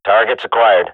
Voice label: radio bot target, swat; Counter Strike Model Pack; CS:GO

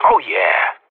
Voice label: radio bot cheer; Counter Strike Model Pack; CS:GO

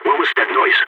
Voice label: heard noise, balkan; vo

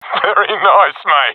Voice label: compliment, professional epic; vo; Source Model Packs; Counter Strike Model Pack; CS2

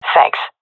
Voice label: thankful, seal fem; vo; Counter Strike Model Pack; CS:GO

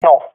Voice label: negative, swat fem; vo; Counter Strike Model Pack; CS:GO

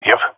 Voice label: affirmation, jungle male; vo; agents; Source Model Packs; Counter Strike Model Pack; CS2; jungle male epic

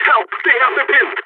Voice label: pinned down, balkan; vo

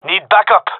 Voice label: request backup, gendarmerie male; vo

